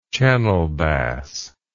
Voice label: "channelbass", English